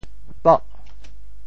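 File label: "poh8", Teochew; 潮州; 砖厚瓦薄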